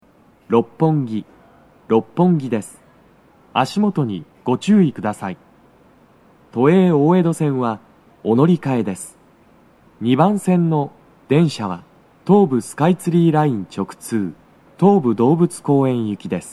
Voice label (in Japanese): 到着放送, 男声, 六本木駅; 2番線 北千住・南栗橋方面; 放送は「東武動物公園行」です。